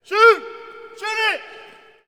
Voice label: Hockey Male "Shoot"; Hockey Vocal Sounds